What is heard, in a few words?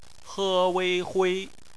"hui", Chinese; phonetics; bpmf